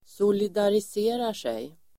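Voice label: "solidariserar sig", Swedish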